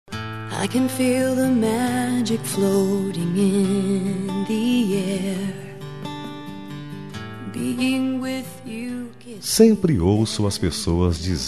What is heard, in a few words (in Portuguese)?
Telemensagem Romantica Picante Masculina; Eróticas romanticas